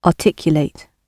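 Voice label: "articulate", British English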